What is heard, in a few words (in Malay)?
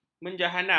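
"menjahanam", Malay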